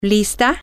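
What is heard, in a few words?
ready, Spanish, female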